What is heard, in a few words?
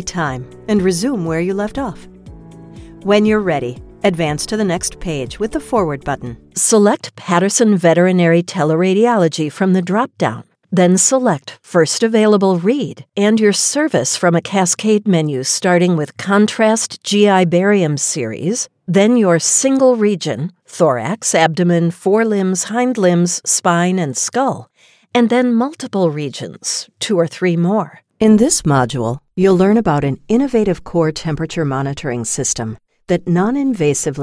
e-learning